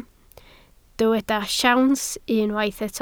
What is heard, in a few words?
"siawns", Welsh